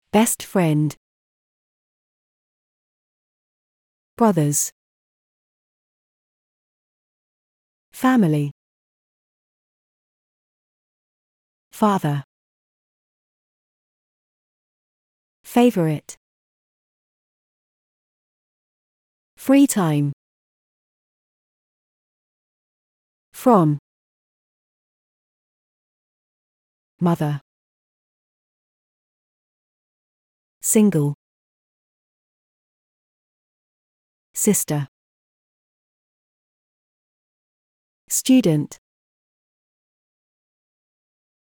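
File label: British introductions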